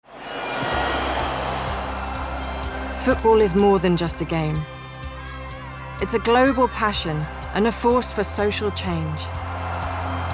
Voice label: corporate

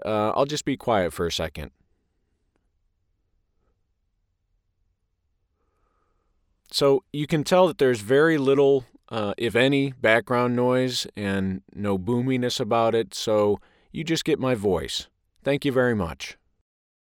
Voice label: Studio Quality

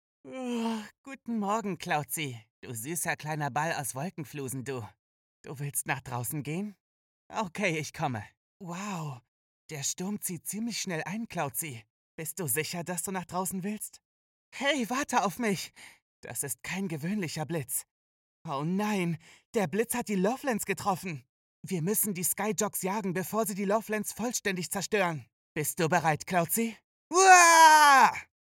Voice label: Teen Voice Over

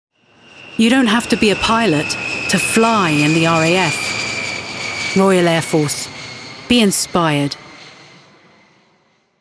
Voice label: ad; RAF

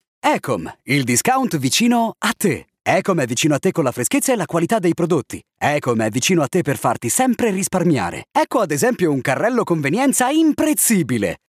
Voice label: spot

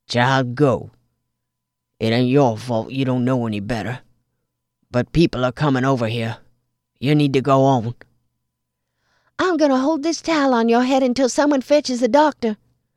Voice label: Audiobook Samples Voiceover

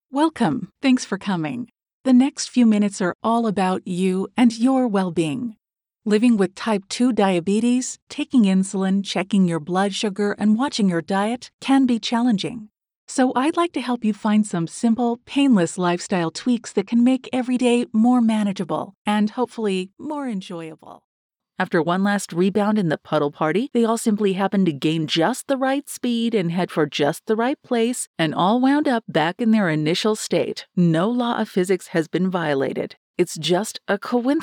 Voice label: E-LEARNING AND TRAINING

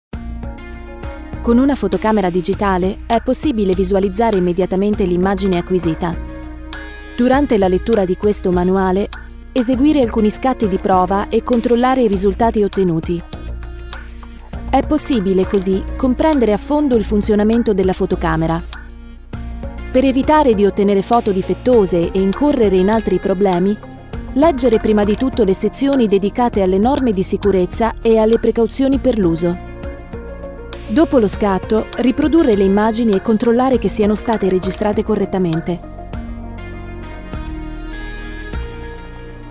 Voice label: Instructions Camera Italian

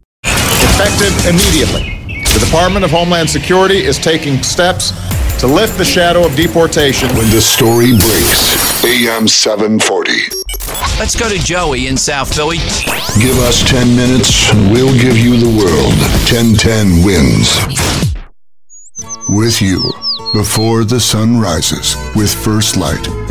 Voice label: SPORTS TALK NEWS TALK LOCAL TALK Imaging Sample

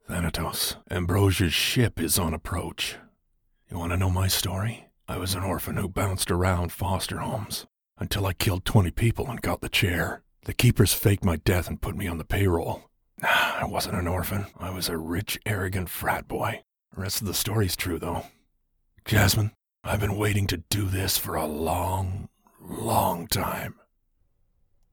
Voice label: Dirty, Male Voice Over

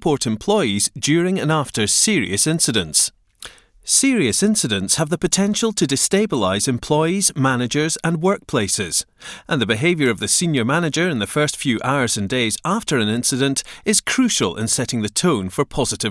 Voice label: e-learning

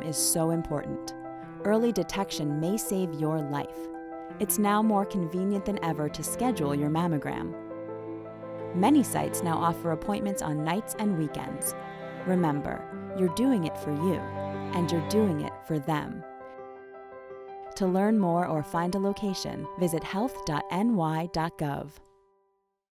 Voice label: Breast Cancer PSA

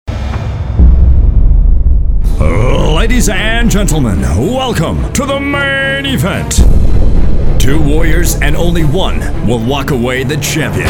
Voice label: Announcing

